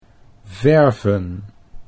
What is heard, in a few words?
"verven", Dutch